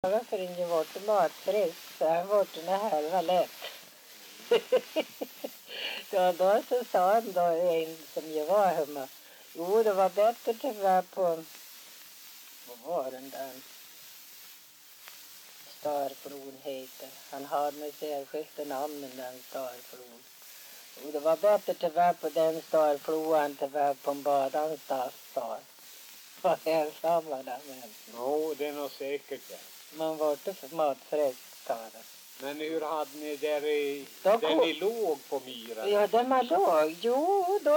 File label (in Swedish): dialekter; Folkminnen